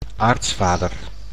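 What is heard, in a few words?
"aartsvader", Dutch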